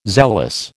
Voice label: "zealous", American

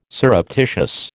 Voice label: "surreptitious", American

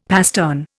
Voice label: "pastern", Indian; Dictionary

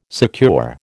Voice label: "secure", American

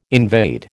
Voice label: "invade", American

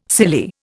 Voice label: "silly", Indian

